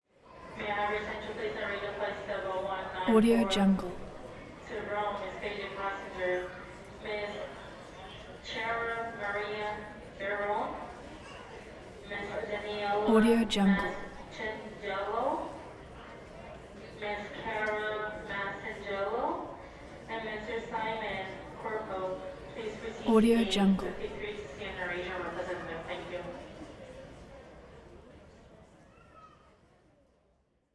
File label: airport gate announcement; newark; stereo; urban sounds